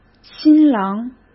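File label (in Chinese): "新郎", Mandarin Chinese; chinese-flashcards; hsk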